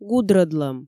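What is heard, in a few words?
"гудрӧдлӧм", Komi, female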